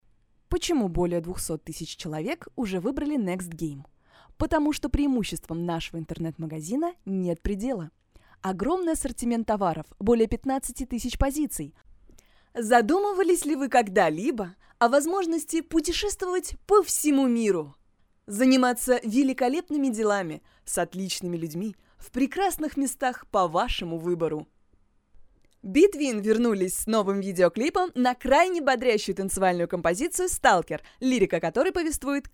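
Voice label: russian female commercial; voice demos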